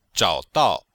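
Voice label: "zhao3dao4", Chinese; Cours de Chinois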